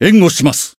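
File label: Voice heal, Japanese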